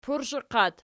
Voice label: "pursheqat", Archi

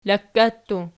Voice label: "lakkattu", Archi

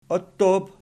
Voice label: "ottob", Archi

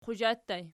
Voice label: "quzha", Archi

